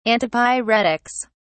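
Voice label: "antipyretics", British English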